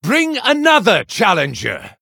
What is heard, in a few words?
Male Human Hero Power; Blackmoore; Thrall